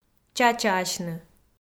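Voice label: "чачаасьны", Komi, female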